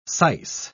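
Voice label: "syce", British English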